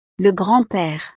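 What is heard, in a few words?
"le grand pere", French; familia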